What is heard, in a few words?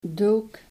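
"dook", Dutch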